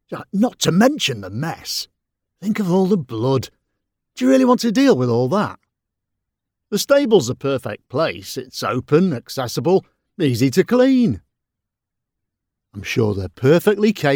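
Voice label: voiceover character non festive innkeeper, British character voice over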